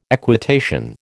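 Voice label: "equitation", American English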